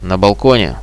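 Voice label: on the balcony, Russian, radio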